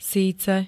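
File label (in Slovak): "síce", Slovak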